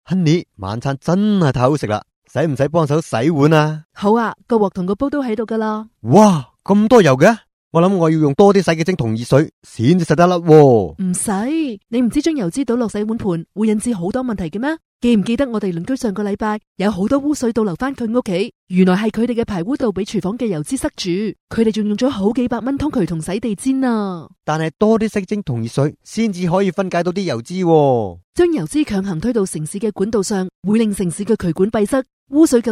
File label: Asian Radio Ad Cantonese